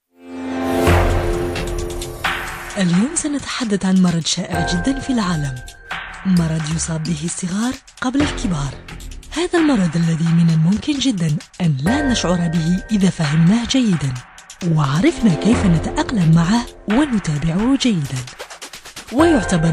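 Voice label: Arabic, female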